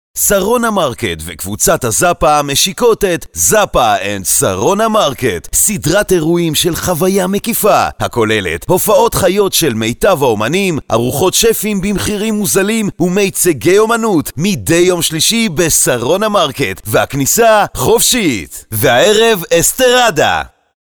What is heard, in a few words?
hebrew narration